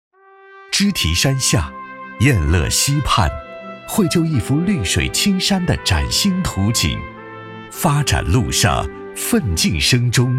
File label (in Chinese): 男 专题 地区宣传 大气; 赤溪镇